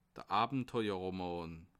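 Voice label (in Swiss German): "abenteurerroman", Bas Rhin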